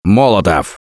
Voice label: take molotov, russian; Gambler